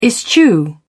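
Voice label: "eschew", British English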